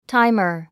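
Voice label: "timer", English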